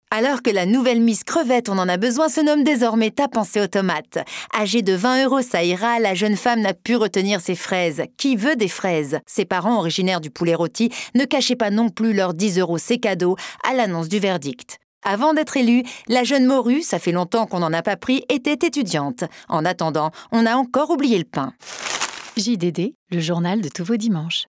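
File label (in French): satyre journaliste info, comédienne voix off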